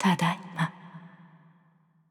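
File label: start, Japanese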